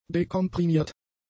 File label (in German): "dekomprimiert", german; adjectives